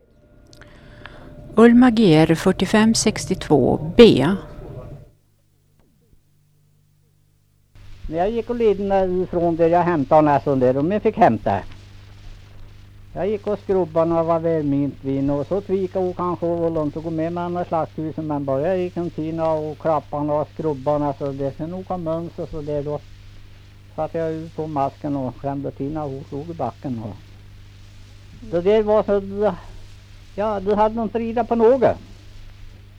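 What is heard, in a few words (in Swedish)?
Kräklinge Närke, dialekter; Svenska sagor; Folkminnen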